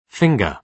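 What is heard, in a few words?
"finger", British English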